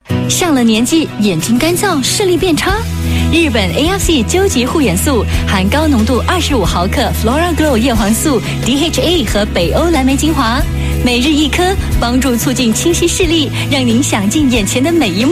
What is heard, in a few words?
Mandarin F; China; Female; Lifestream Eye Care